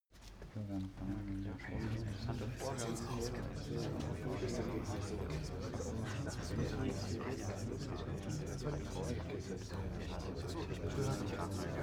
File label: male crowd chatting calmly